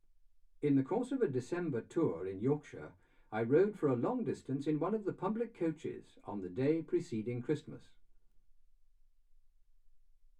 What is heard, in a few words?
male speech english